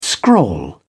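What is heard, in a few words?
"scrawl", British English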